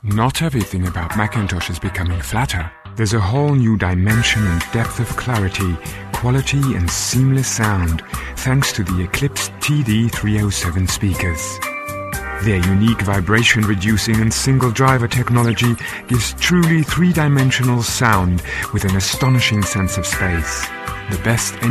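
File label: German English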